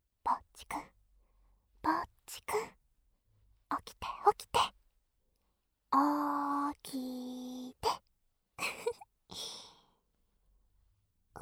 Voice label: 射精音なしくちゅ音なし反転, ASMR

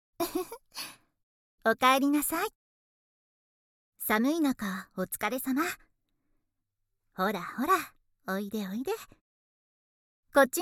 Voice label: オープニング お帰りなさい バイノーラル

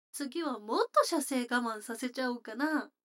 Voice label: 女の子 台詞, ASMR

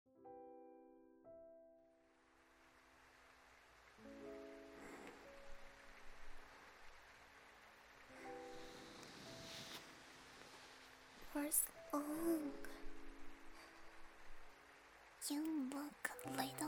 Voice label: 添い寝でオノマトペ

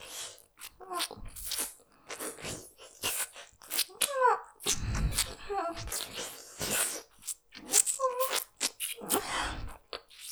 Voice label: 耳責め なぁーに?気持ちいい?入れたい?ｗまだ、だーめ♪; ステレオ

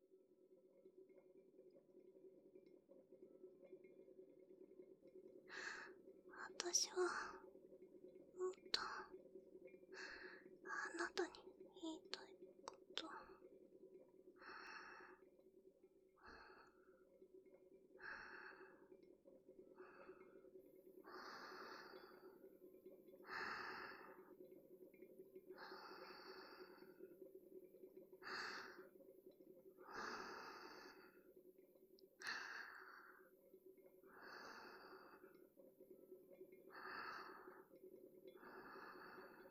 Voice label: 30分耐久添い寝BGMなし

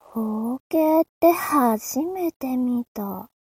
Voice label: mob 女子 台詞

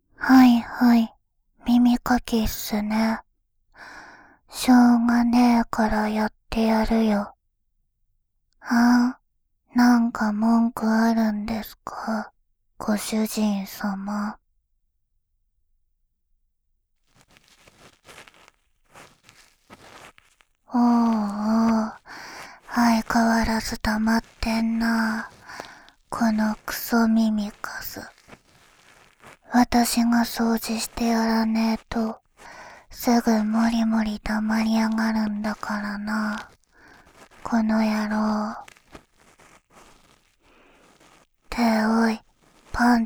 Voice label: メイドさんの耳かき; 眠り屋; メイドさんの耳かきえっち2

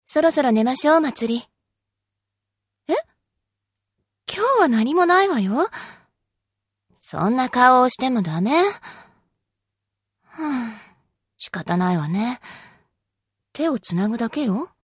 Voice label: おやすみボイス; 屋上の百合霊さん#3特典ボイス; 美夕

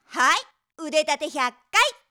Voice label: ハイ、腕立て100回！, スポーツ少女

